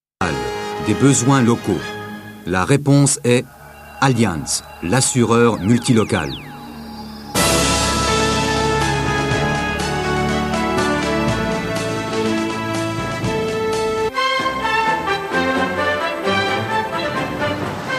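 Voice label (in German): French, Kommentar Neutral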